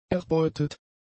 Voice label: "erbeutet", German; adjectives